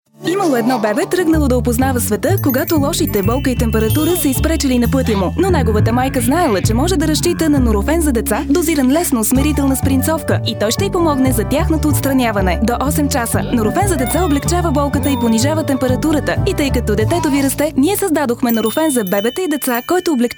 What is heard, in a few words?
female bulgarian voice over sales